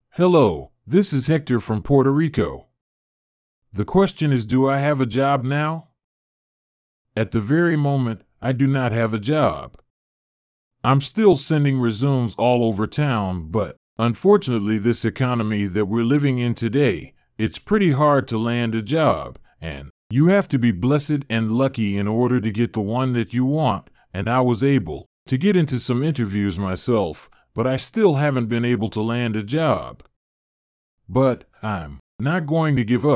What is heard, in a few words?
Audiobook Voice